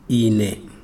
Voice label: "i", Chikunda